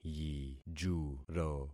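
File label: "yijuro", concatenated syllables; Affixing experiment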